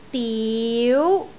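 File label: "diu2", Cantonese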